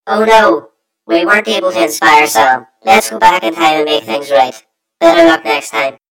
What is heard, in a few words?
story voiceover; yumpod